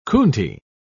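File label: "coontie", British English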